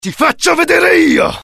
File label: anger, dialogue IT; mohaa; attack